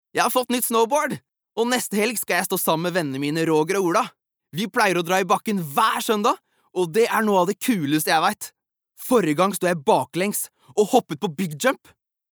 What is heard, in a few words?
no dub young adult boy